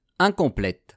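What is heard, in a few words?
"incomplete", French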